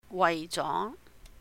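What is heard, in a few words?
"waih jó", Cantonese; for, in order to; 為咗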